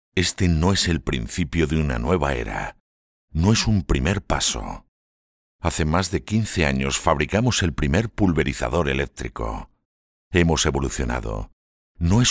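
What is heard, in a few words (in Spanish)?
corporativo España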